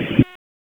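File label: Police North District Tactical, P25; Raleigh; Tac 1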